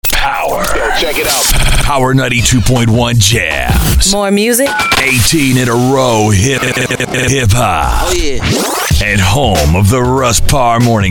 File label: URBAN-UAC Radio; radio's bygone eras ('91-'99)